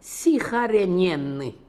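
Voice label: "Sikharenenian", Russian, pronounciations; Fourth Way; Beelzebub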